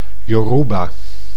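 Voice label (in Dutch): "Yoruba", Dutch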